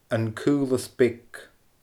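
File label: "An Caolas Beag", Scottish Gaelic; ainm-àite fir.; Little Narrows (NS, Canada)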